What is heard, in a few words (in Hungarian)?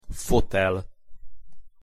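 "fotel", Hungarian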